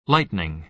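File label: "lightning", American English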